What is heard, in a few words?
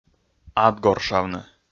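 "адгоршавны", Komi, male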